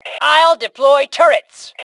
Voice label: Self Task Deploy Turrets, Female; T2 Female 04